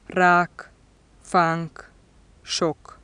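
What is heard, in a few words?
velar stop voiceless final, hungarian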